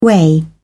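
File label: "weigh", British English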